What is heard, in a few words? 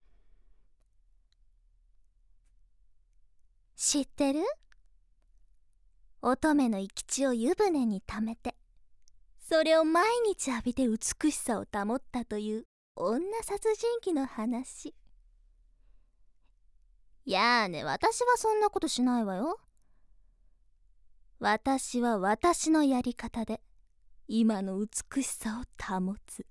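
voice sample, little girl witch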